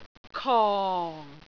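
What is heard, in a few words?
"kong4", Cantonese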